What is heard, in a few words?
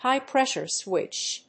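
"HIGH-PRESSURE SWITCH", English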